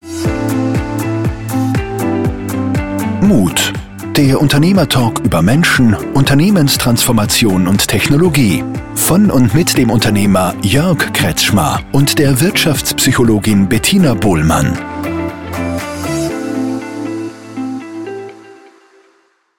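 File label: Austrian German Commercial, voice demos; GoLocalise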